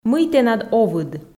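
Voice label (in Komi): мый тэнад овыд, Komi, female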